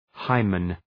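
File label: "hymen", English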